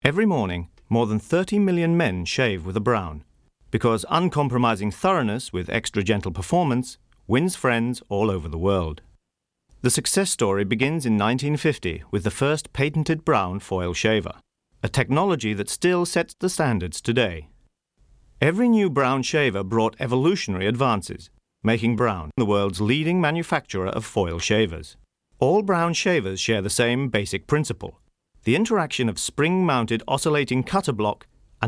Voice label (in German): uk englisch